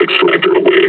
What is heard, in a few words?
announce attack grenade